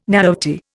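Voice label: "naivety", Indian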